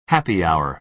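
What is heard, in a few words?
"happy hour", American English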